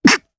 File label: Vox Damage jp; Shakmeh; kingsraid; voices heroes